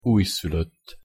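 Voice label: "ujszulott", Hungarian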